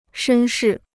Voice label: "绅士", Chinese

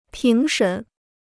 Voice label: "评审", Chinese

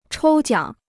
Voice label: "抽奖", Chinese

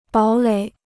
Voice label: "堡垒", Chinese

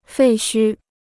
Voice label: "废墟", Chinese

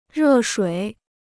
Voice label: "热水", Chinese

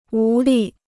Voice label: "无力", Chinese

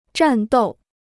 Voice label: "战斗", Chinese; zhàn dòu; to fight; to engage in combat

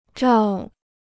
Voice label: "照", Chinese

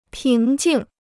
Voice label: "平静", Chinese

